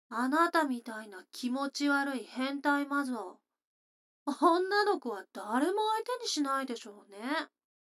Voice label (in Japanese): 女の子台詞